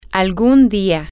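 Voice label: someday, Spanish, female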